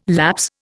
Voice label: "lapse", Indian

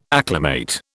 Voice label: "acclimate", British

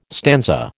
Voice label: "stanza", American

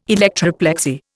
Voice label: "electroplexy", Indian

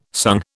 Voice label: "sung", British

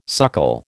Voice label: "suckle", American

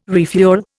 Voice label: "refuel", Indian

